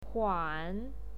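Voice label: "huan", Mandarin Chinese pinyin, third tone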